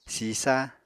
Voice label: "sisa", Apatani; Material Culture

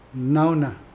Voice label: "bad", Mokilese

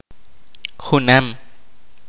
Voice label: kaqchikel; the same thing

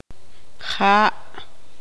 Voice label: tzutujil; ella

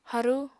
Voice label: "harú", apatani

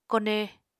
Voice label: "kone", Apatani

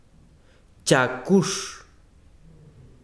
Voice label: besiro espalda, chiquitano

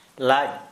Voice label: Santali intestines

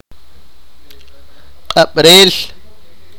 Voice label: sipakapense; be intoxicated

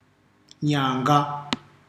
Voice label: "horn of bull", ndau

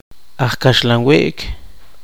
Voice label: poqomchi; panadero

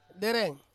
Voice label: "dereng", Ansus; teeth

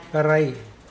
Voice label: "fry", Mokilese

